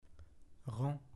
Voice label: "rang", French